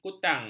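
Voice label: "kutang", Malay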